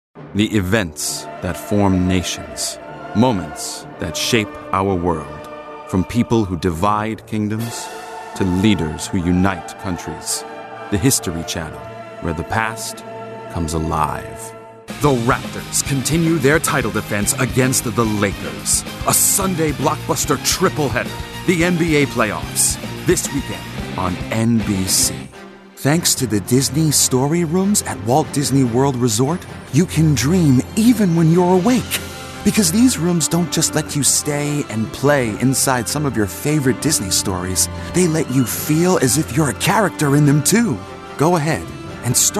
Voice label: Commercial Reel